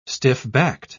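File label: "stiff-backed", British English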